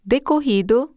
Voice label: Portuguese elapsed; samsung YP-U5; Audio User Interface

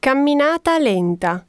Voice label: Italian walk slow; samsung YP-U5; Audio User Interface

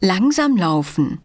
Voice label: German walk slow; samsung YP-U5; Audio User Interface

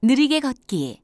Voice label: korean walk slow; samsung YP-U5; Audio User Interface

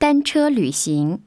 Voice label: chinese race cycle; samsung YP-U5; Audio User Interface

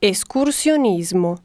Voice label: italian climbing; samsung YP-U5; Audio User Interface

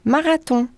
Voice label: french marathon; samsung YP-U5; Audio User Interface

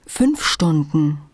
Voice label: german 5 hour; samsung YP-U5; Audio User Interface

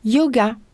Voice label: french yoga; samsung YP-U5; Audio User Interface

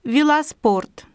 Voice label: Russian race cycle; samsung YP-U5; Audio User Interface

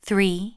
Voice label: english count 3; samsung YP-U5; Audio User Interface

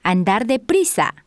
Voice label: Spanish walk fast; samsung YP-U5; Audio User Interface

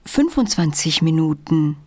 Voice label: german 25 min; samsung YP-U5; Audio User Interface

